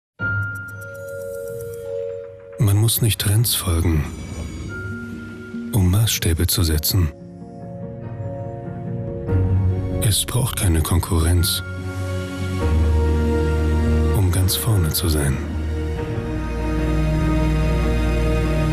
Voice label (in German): werbung layout